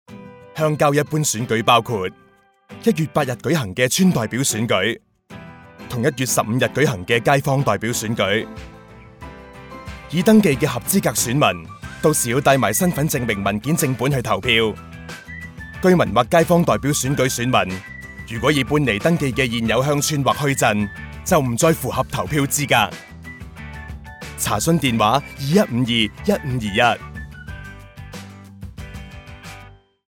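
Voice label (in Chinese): Polling day Radio Cantonese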